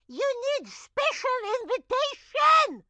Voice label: grandma chopper cmon; Blind Drive